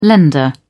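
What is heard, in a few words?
"lender", British English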